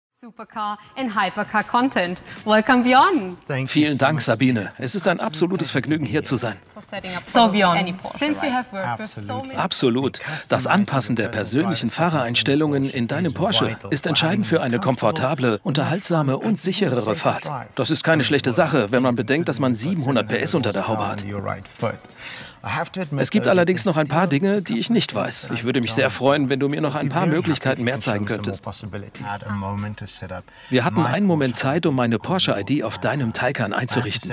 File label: VO How to Video; Porsche Taycan